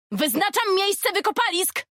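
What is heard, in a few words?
Polish; 探奇（守望先锋2）; 终极技能; 主动/敌方释放语音; 波兰语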